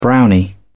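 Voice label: "brownie", British English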